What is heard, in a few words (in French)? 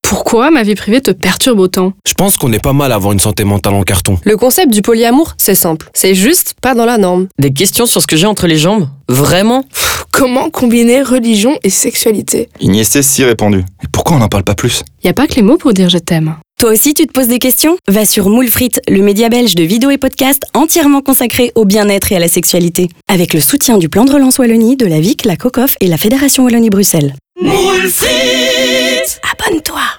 Spot radio; presse moules frites